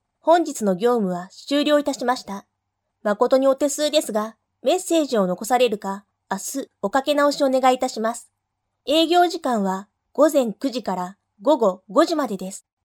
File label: phone, voice